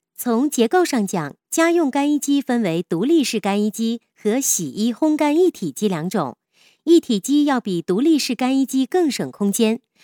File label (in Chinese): 女 解说 家庭干衣机怎么选用, 国语女声配音; 省台女配